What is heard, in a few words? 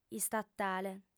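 "istatale", Sardinian, female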